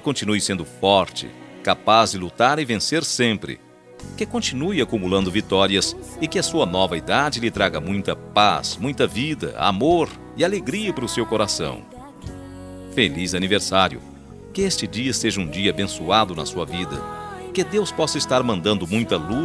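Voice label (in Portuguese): aniversario evangelico sogro masculino, telemensagem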